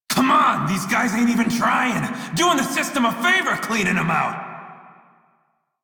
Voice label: Crew Enemy Defeat Male en; Dialog; Warframe; Railjack Crew; Red Veil

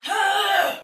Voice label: Attack Heavy, Soldier Female, Battle; Sinecure

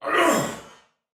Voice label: Attack Heavy Soldier Male, Battle; Dialog; Barks; Sinecure

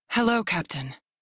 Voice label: Crew Hired, Female; Dialog; en; Warframe; Railjack Crew; New Loka

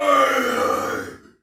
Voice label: Battle Cry Soldier Male; Dialog; Barks; Sinecure

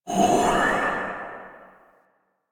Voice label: Fire Whispers; Weapons; Warframe; Tenno; Grimoire

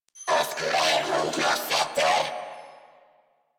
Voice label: Patrol, Female; New War; Narmer Grineer

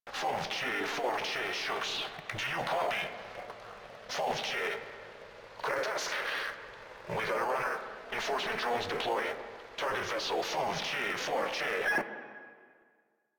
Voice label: Controller, Radio Interceptions

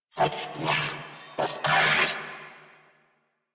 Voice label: Player Spotted Grineer Male; New War; Narmer

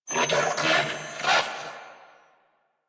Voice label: Attack Grineer Male; New War; Narmer

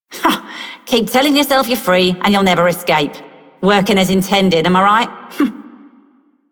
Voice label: Female Generic, Female One, en; Dialog; Warframe; Solaris Venus

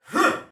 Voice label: Attack, Soldier Male; Sinecure

